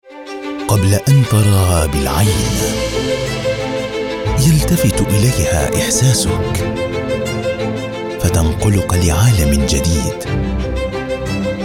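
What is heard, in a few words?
General Modern Standard Arabic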